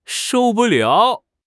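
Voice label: "shou4 bu4 liao3", Chinese; HSK4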